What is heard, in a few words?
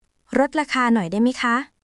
Thai, basic thai phrases